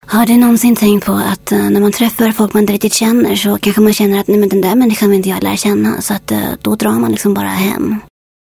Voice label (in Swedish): rostprov hes kvinna; karaktarsroster